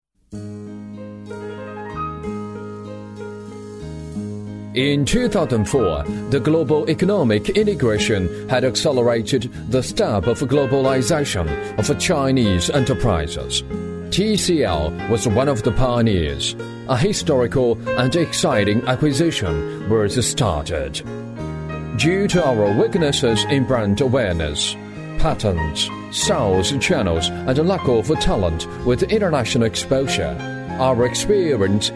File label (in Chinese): 英男; TCL 集团